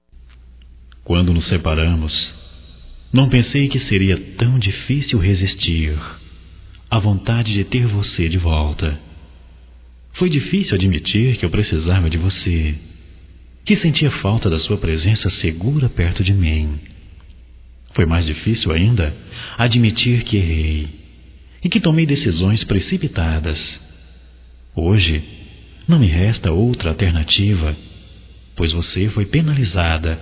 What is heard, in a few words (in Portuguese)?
SAUDADE DE AMOR, masc; Telemensagens 2017; Declaração de amor